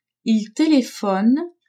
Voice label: "ils telephonent", French; telephoner

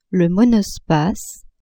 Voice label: "monospace", French

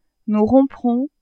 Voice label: "nous romprons", French

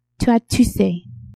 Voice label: "tu as toussé", French; tousser

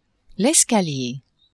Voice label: "escalier", French; maison

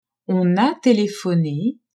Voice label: "on a telephone", french; telephoner

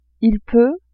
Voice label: il peut, pouvoir

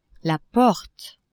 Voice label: "porte", French; maison

